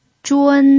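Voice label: "zhun1", Mandarin Chinese pinyin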